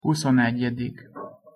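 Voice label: "huszonegyedik", Hungarian pronunciation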